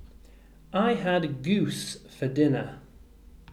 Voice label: "goose", English